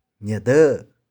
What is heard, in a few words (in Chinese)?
"nih4deu1", 玉環